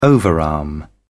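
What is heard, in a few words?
"overarm", British English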